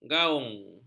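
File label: "gaung", Malay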